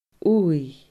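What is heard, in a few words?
"uidh", Scottish Gaelic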